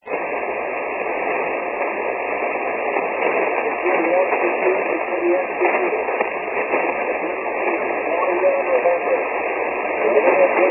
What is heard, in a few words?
CQ 80m